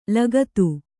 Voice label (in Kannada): "ಲಗತು", Kannada; lagatu; noun; = ಲಗತ್ತು.